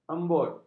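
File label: "embut", Malay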